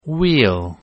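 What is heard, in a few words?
"we'll", English contraction; Contractions Pronunciation Practice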